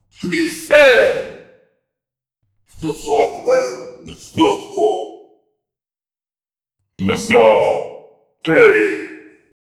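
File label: man saying great slaughter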